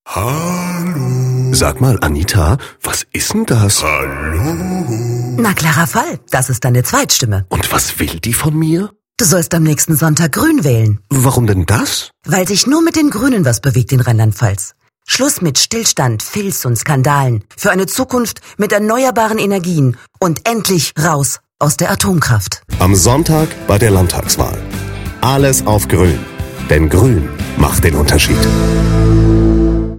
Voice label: spot Radio; Gruene